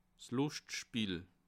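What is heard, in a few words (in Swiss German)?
"luschtstick", Bas Rhin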